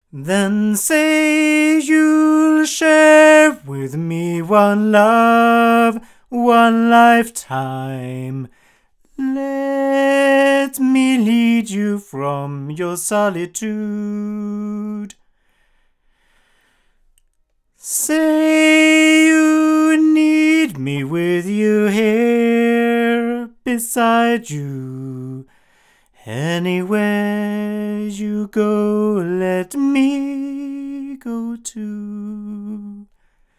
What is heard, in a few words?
omni male